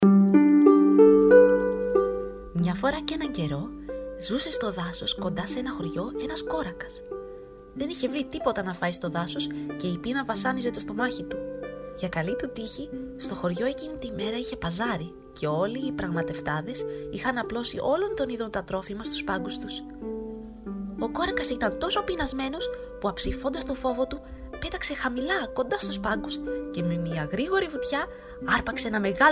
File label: female Greek fairytale audiobook